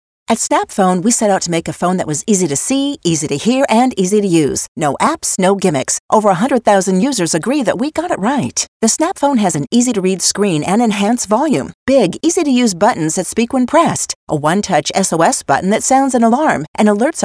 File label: Infomercial